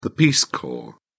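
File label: "peace corps", British English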